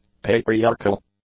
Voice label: "patriarchal", English; adjectives

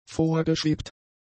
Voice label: "vorgeschwebt", German; adjectives

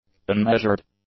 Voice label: "unmeasured", English; adjectives

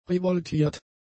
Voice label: "revoltiert", German; adjectives

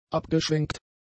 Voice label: "abgeschwenkt", German; adjectives

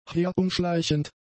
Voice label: "herumschleichend", German; adjectives

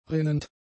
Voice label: "rinnend", German; adjectives

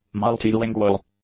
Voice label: "multi-lingual", English; adjectives

